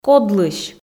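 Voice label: "кодлысь", Komi, female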